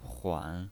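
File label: "huan", Mandarin Chinese, third tone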